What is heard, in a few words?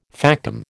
"factum", American